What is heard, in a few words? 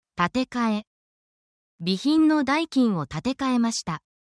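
Japanese word, essential Japanese phrases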